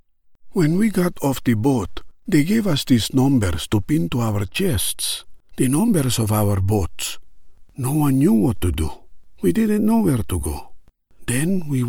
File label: Neutral Eastern European accent